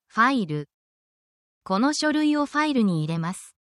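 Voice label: Japanese word, essential Japanese phrases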